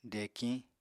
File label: "dekiñ", Apatani; Material Culture